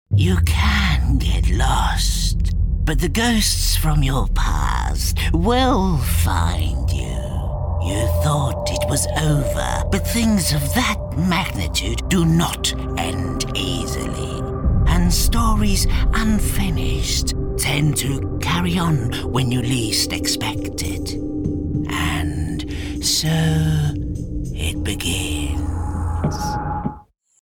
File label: Character UK, voice-overs